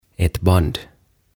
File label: "Ett Band" "Nauha"